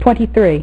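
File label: "twenty-three", female; MacCribbage; InterStellar MacCribbage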